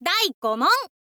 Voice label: girl